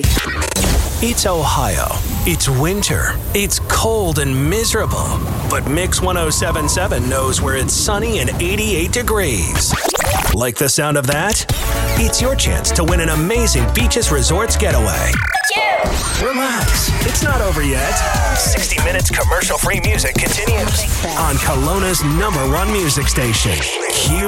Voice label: radio imaging ac